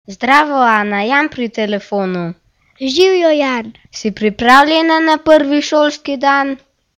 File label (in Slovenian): Telefonski pogovor; Slovenscina